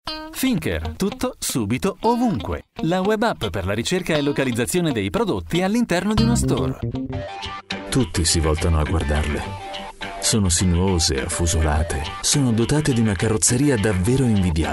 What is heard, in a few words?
italian male generic